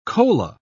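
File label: "kola", English, British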